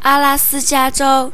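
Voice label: alaska, Spanish; gcompris-data; geography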